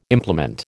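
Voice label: "implement", American English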